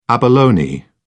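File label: "abalone", British English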